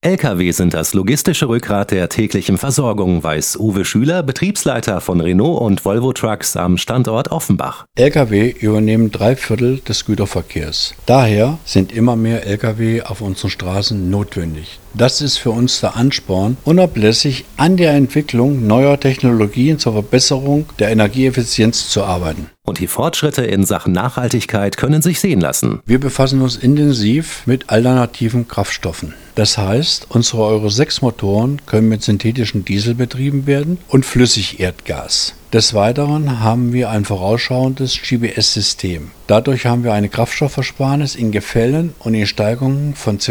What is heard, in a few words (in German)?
Infomercial; Volvo Concept Truck; Antenne Frankfurt